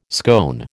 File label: "scone", American